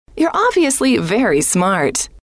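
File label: you Are Obviously Very Smart, female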